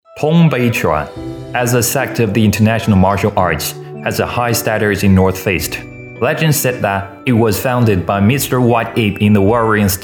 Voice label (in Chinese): 英文; 英文通背拳; 蓝鲸配音